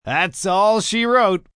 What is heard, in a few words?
All She Wrote, Sayings, male; Talking Sound Effects